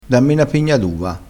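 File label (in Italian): "pigna", dialettale, crespinese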